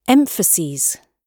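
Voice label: "emphases", British English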